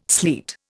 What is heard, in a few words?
"sleet", Indian